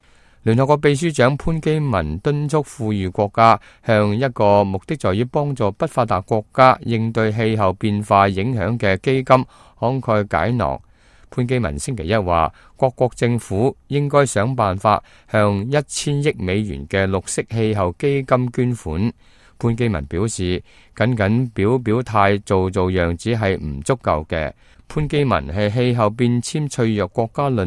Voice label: cantonese, news